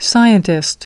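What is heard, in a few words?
"scientist", English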